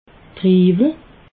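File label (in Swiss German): "triiwel", Alsatian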